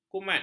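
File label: "kumat", Malay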